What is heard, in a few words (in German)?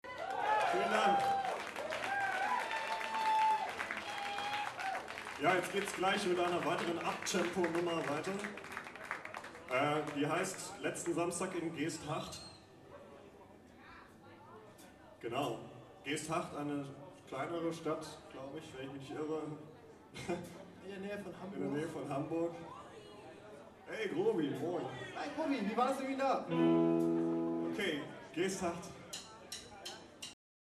ansage